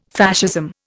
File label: "Fascism", Indian